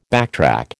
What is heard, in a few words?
"backtrack", American